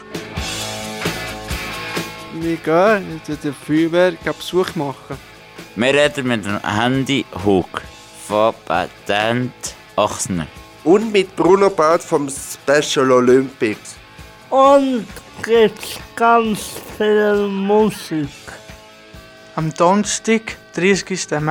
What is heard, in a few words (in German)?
Trailer Radio; Happy Radio 2017